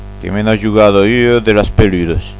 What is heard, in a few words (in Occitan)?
"de las peludas", Gascon